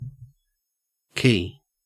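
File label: "quay", Australian English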